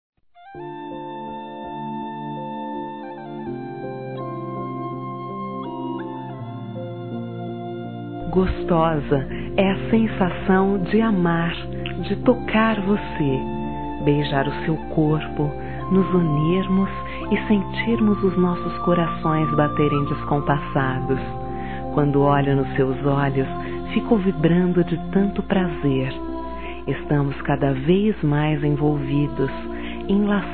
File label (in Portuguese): Namoro Virtual Feminino; Telemensagens; Telemensagens 2017